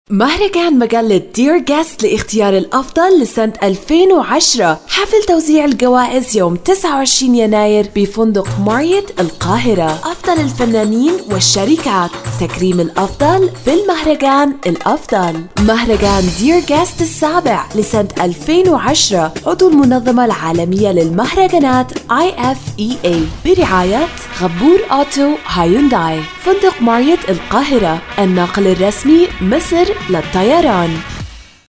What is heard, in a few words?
English US Radio TV Commercial Voice